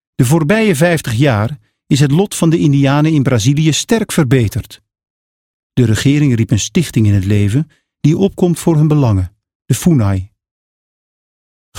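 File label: Dutch, male, narration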